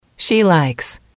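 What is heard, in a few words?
"she likes", English, female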